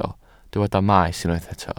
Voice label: "maes", Welsh